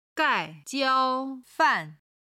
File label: "gai4 jiao1 fan4", Chinese